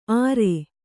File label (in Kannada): "ಆರೆ", Kannada